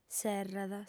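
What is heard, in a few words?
"serrat", female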